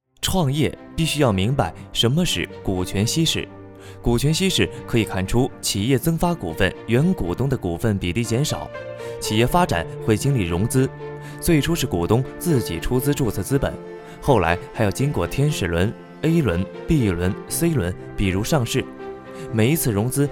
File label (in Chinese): 短片解说; 抖音